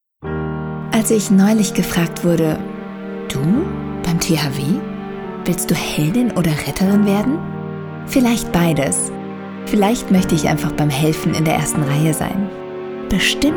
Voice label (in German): nachdenklich; radiowerbung; Technisches Hilfswerk